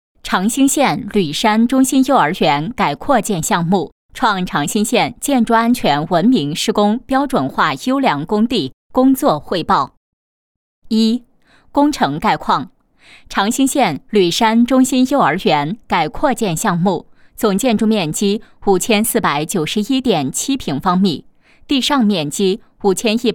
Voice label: 女 汇报 工程汇报

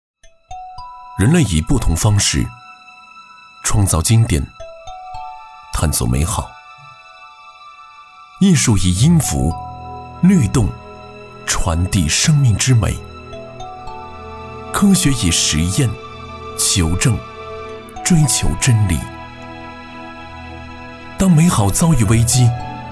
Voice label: 男 纪录片; 拜耳可申达

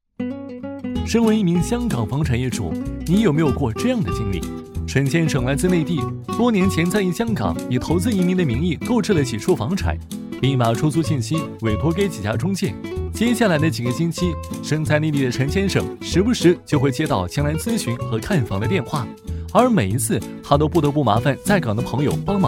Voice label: 男 MG; 房屋管家

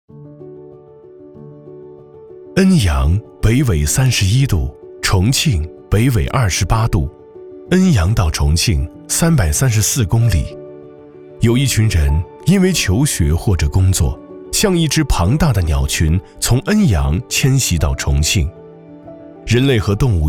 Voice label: 男, 走心, 温暖磁性; 恩情基金

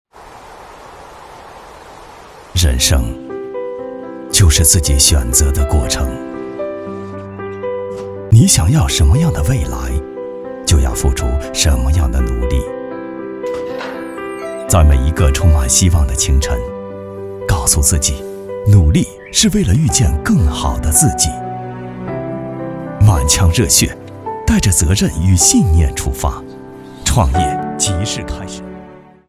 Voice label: 男 公益片 情感独白 配音

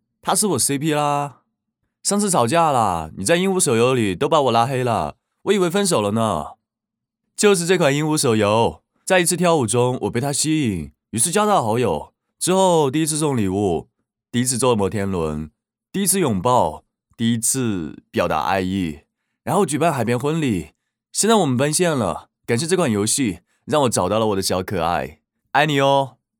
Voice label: 男 台湾腔 手游; 音舞手游

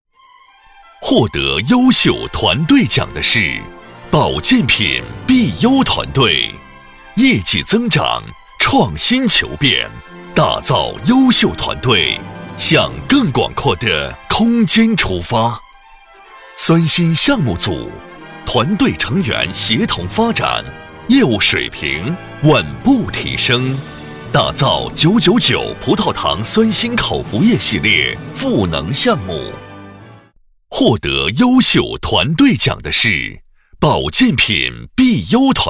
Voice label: 男 颁奖 单位业务

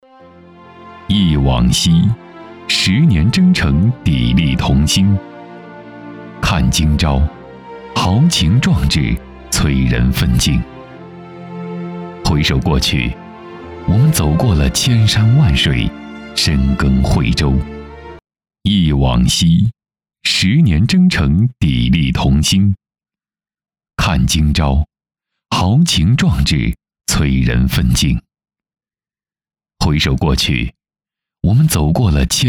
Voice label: 男,旁白; 惠州

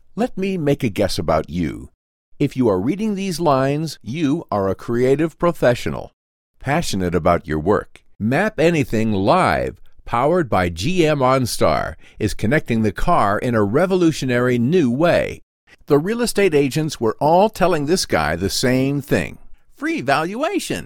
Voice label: 外籍英男, 解说

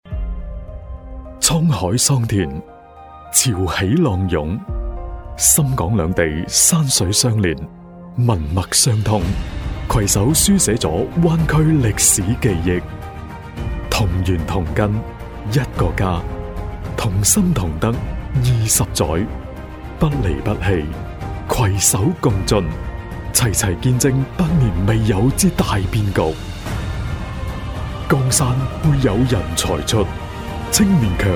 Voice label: 粤男 宣传片; 二十正当年

